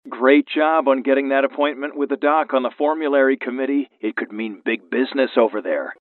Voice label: Sales Training Character